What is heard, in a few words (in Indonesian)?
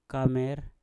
"kamer" tikus, Mpur